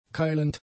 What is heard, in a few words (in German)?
"keilend", German; adjectives